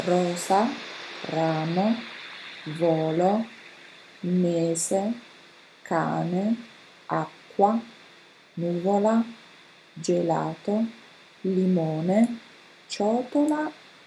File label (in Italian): DETTATO; dettato facitato